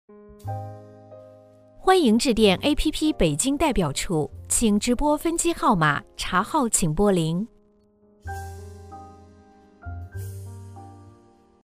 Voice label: Mandarin Chinese IVR, Young Professional Mandarin Chinese Voice